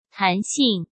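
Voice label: "弹性" Mandarin Chinese; chinese flashcards; hsk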